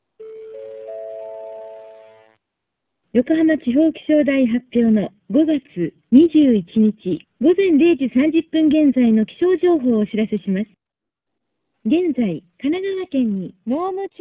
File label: ilbc 8khz wifi voice off